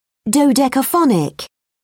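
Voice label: "dodecaphonic", British English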